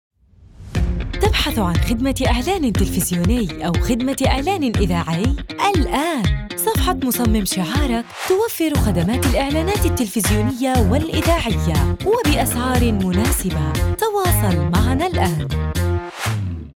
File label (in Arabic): فصحى